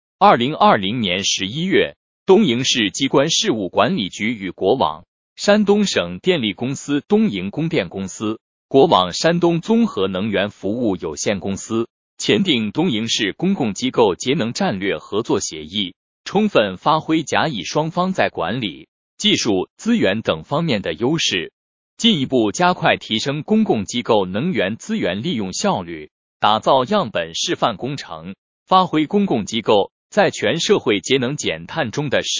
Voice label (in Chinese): 配音